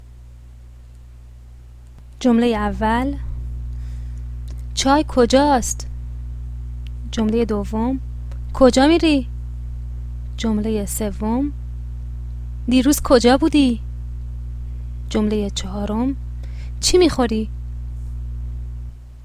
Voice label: pronunciation, Persian